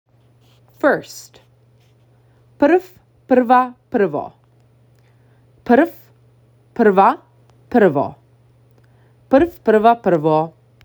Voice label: First, Macedonian phrasebook; the talking macedonian phrasebook